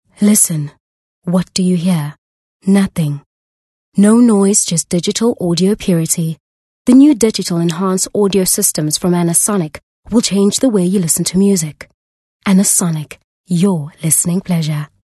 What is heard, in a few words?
English Softsell